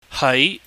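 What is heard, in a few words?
"heui", Cantonese, mid rising tone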